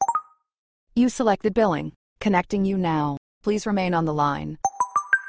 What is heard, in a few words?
system, ivr voice; ivr voice generator